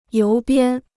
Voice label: "邮编", Chinese

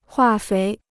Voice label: "化肥", Chinese

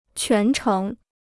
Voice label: "全程", Chinese

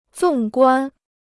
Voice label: "纵观", Chinese

